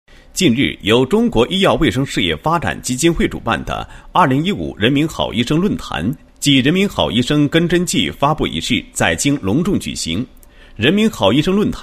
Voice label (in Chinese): 男27-新闻; 人民好医生论坛